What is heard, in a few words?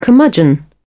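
"curmudgeon", British English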